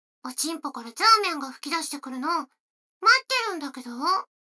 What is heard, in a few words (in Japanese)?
女の子 台詞